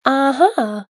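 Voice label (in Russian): звук женского "ah-ha" женский голос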